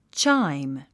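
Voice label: "chime", English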